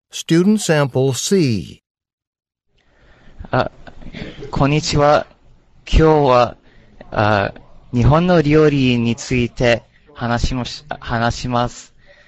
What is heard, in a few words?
japanese cultural perspective presentation